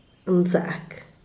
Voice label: "bueno", "nzak"

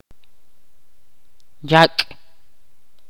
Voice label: "lengua" in Ch'orti'

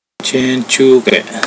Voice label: "leaf", pohnpeian

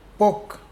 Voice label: hole in paper, Mokilese

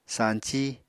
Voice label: "sañcí", Apatani

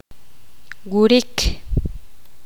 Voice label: poqomam; dormir

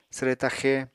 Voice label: "swre taxe", Apatani

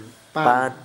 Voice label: "pan", Gta, Dialect: Hill; betel

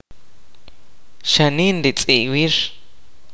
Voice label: "yesterday the dog ran", kaqchikel

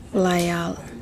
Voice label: "dawn", Yapese

